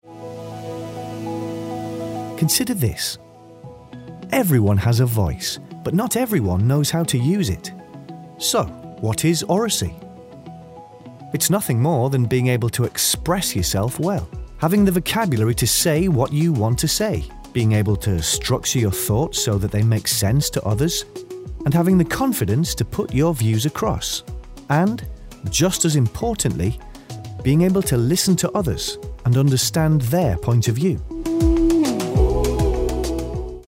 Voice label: male english slight northern accent explainer; oracy